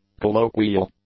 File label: "colloquial", English; adjectives